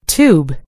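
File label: "tube", American English; gcompris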